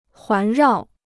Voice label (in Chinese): "环绕", Chinese